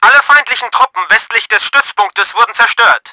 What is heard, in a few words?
WEST ATTACK CLEARED, german; Battle Engine Aquila